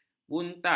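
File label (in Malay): "buntak", Malay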